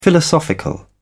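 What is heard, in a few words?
"philosophical", British English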